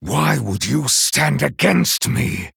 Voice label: Male NightElf Idle; Kurtrus; Cariel

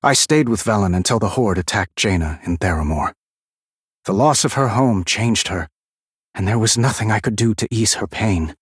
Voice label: Male Human

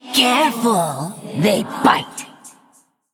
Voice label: Female Undead Play

